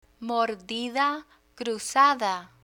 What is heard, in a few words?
"mordida cruzada" Spanish